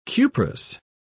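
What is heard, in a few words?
"cuprous", British English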